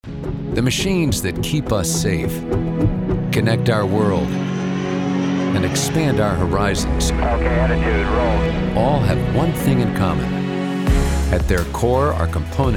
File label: english american corporate videos; North American English professional, working voice actor